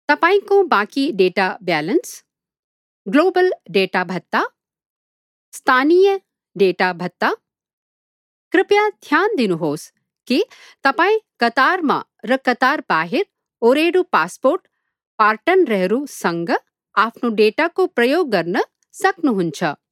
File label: NEPALI INFORMATION